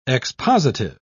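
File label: "expositive", British English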